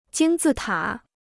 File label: "金字塔", Chinese